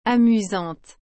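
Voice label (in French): "amusante", French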